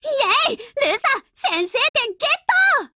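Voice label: WIN Japanese, Voice; Lusa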